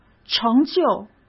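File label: "成就", Mandarin Chinese; hsk; chinese-flashcards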